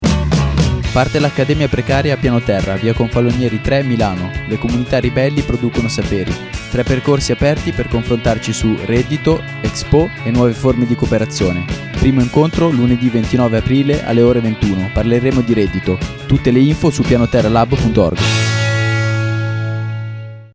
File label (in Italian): spot; accademia